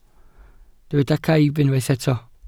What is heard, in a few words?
"caib", Welsh